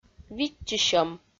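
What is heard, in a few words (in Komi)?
"виччысьӧм", Komi, female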